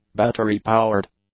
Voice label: "battery-powered", English; adjectives